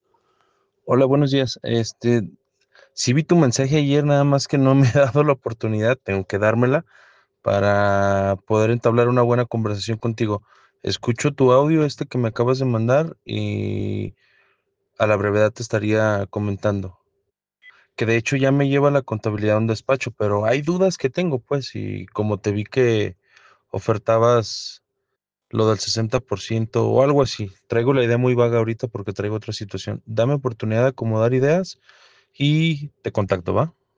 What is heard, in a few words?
whatsapp ptt